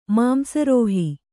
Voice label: "ಮಾಂಸರೋಹಿ", Kannada